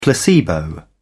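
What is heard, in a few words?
"placebo", British English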